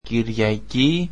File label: "Sunday" greek